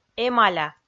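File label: "эмаля", Komi, female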